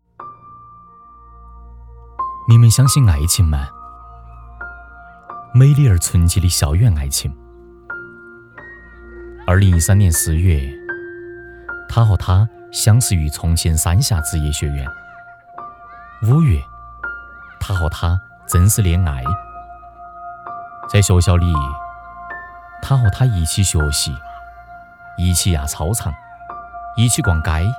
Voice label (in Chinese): 方言 旁白抒情; 你们相信爱情吗